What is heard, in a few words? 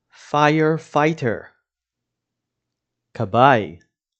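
"firefighter" English, "כבאי" Hebrew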